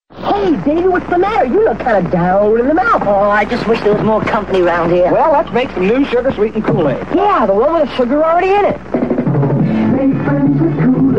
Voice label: Commercial; Kool-Aid; 1969